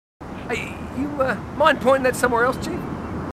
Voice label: marines, aussie; h2dialogue